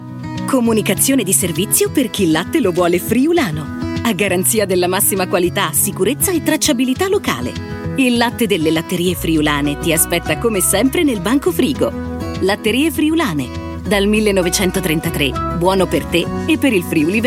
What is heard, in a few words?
italian female commercial